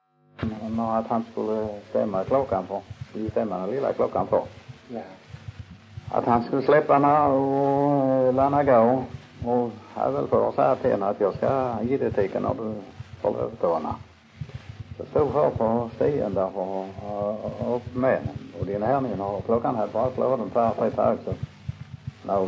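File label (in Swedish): Munka-Ljungby Skåne, dialekter; Folkminnen; Svenska sagor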